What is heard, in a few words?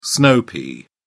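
"snow pea", British English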